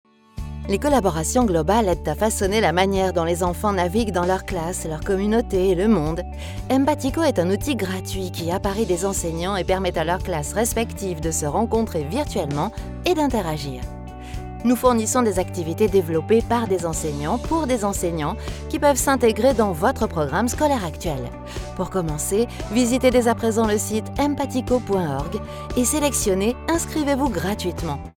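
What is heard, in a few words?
French Corporate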